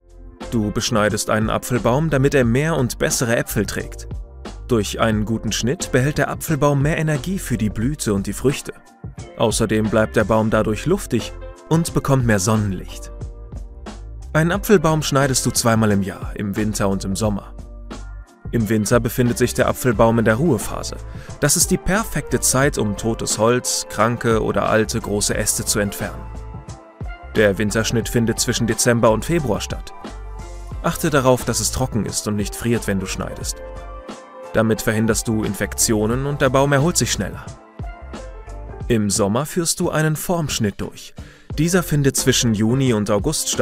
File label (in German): erklaerfilm relaxed